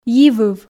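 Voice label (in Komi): "йивыв", Komi, female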